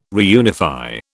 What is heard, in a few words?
"reunify", British